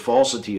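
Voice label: "falsity", American English; pronunciations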